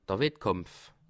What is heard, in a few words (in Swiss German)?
"wettkampf", alsace